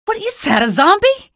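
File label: citizen alert zombie, female; vo; npc; source engine; episodic; episode 1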